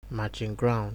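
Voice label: "matching ground", Nigerian Pidgin, male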